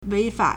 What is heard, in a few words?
"wei2fa3", Chinese